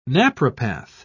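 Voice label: "naprapath", British English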